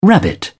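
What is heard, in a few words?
"rabbit", English, uk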